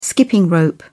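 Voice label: "skipping-rope", British English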